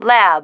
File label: "lab", female